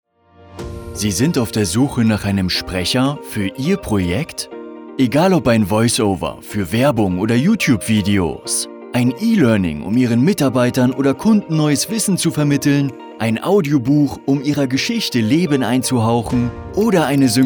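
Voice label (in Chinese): 叙述, 哈萨克语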